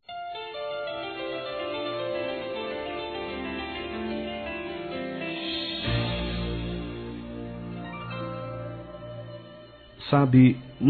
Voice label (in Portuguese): ROMANTICA P CASAIS M